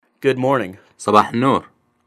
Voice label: iraqi, Good morning (answer) sabah el-nuur صباح النور